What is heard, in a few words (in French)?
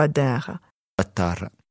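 terra, tarra, Corsican, a terra (N) / a tarra (S); Corse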